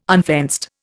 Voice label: "unfenced", Indian